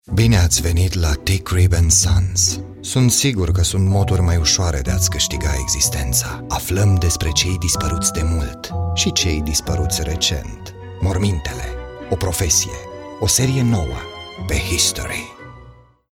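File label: Romanian commercial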